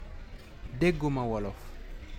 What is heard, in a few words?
Wolof I don't speak Wolof